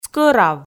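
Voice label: "скӧрав", Komi, female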